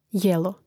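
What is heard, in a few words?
"jelo", Croatian; mreznik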